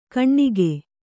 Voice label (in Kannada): "ಕಣ್ಣಿಗೆ", Kannada